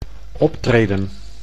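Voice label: "optreden", Dutch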